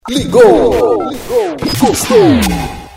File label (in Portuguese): vinhetas, ligou gostou